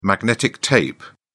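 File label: "magnetic tape", British English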